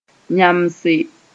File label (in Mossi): "yãmbse", moore